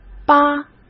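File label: "扒", Mandarin Chinese; hsk; chinese flashcards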